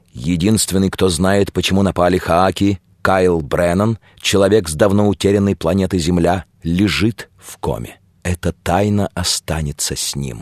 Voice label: narr